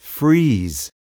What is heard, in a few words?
"freeze", spanish